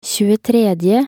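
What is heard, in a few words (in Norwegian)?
"tjuetredje" Norwegian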